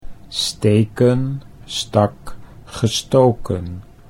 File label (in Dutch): steken stak gestoken, Dutch